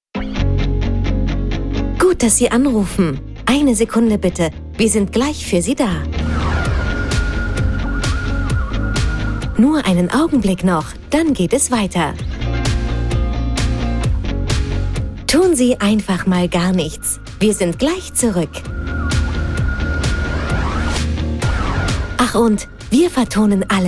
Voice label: Kommentar Freundlich

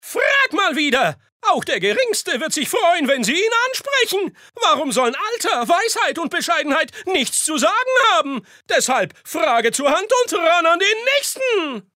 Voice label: Charge Hysteriker

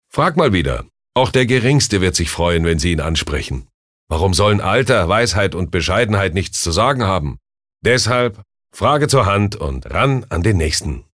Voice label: Werbung Dynamisch

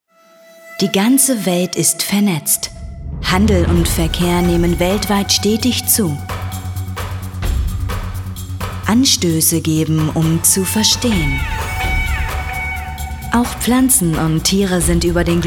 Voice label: Sanft

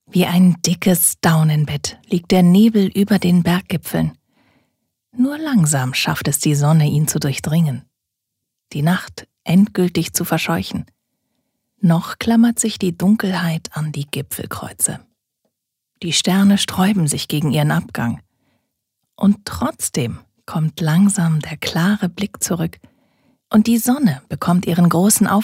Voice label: Schauspiel Sanft

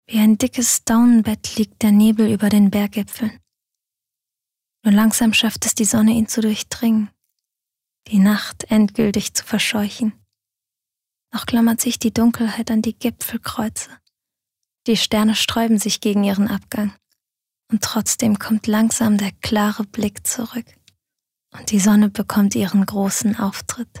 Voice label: Schauspiel Sanft

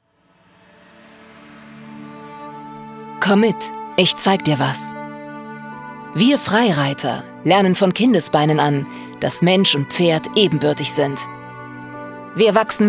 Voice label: Geheimnisvoll

